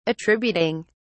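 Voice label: "attributing", British English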